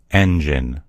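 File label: "engine", American English; gcompris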